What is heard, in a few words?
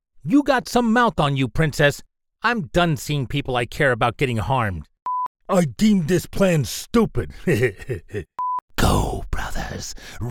Character